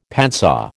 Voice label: "padsaw", American